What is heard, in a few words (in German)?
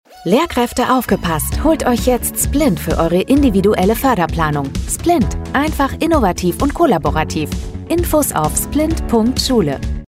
Funkspot; Inklusion Digiital GmbH